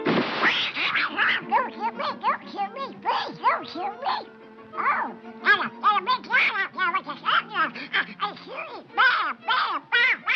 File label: Duckling Big rant